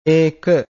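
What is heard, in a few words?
"eka", Pali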